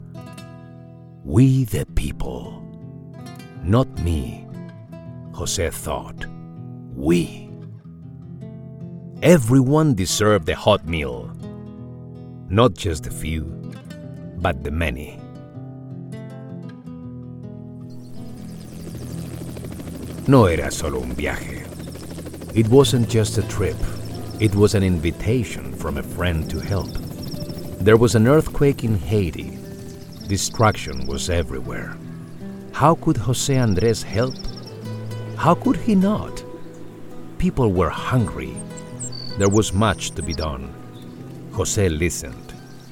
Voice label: english audiobooks